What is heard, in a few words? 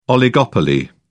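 "oligopoly", British English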